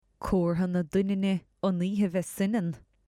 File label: "comhartha", Muimhneach; Tearmai Aimsire